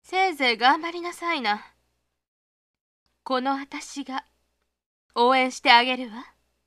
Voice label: lady; お姉様系; 励まし